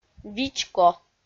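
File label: "вичко", Komi, female; audio dict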